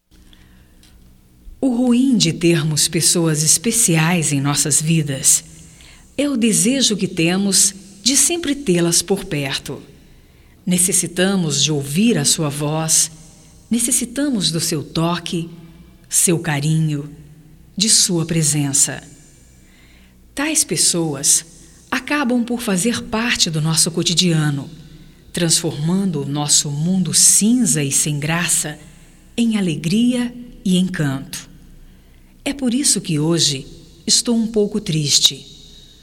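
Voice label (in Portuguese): DESPEDIDAS GERAL, female, Telemensagens, Só Voz; Telemensagens 2017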